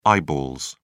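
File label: "eyeballs", British English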